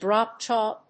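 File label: "drop jaw", English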